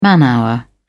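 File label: "man hour", English, uk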